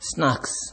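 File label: "snacks" Nigerian Pidgin, male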